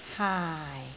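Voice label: "haai4", Cantonese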